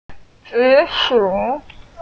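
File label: "zi3 shu3", Mandarin Chinese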